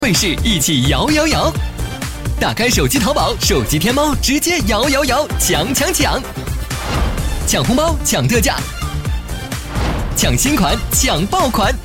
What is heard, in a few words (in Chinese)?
男 电视广告